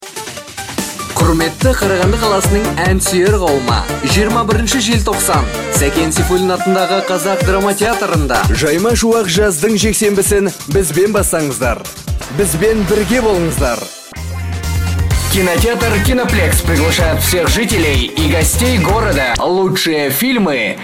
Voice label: WhatsApp Audio